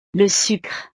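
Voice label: "le sucre", French; desayuno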